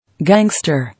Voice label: "Gangster", English